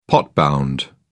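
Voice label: "pot bound", British English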